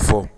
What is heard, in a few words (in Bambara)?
"fɔ", Bambara; actions